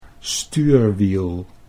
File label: "stuurwiel", Dutch